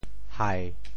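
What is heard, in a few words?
"hai7", Teochew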